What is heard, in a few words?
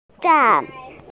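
"zhan4" Chinese, female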